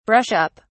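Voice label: "brush up", English, British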